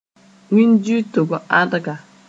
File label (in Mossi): "wĩn-dɩʋtg ãdga", Moore